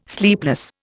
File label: "sleepless", Indian